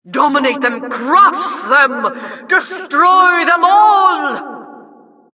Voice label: announcer, vo, killstreak

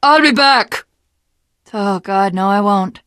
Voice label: jumping off bridge, teengirl; Left 4 Dead 2; Source Engine; video games

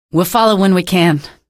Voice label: evac no, female; video games; npc; source engine; episodic; episode 1

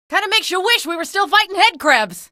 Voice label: alert gunship, female; video games; npc; source engine; episodic; episode 1

